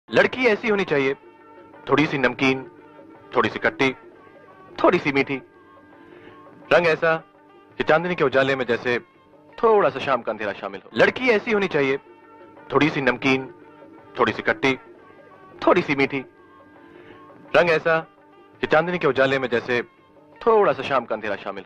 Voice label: Dialogues, Hindi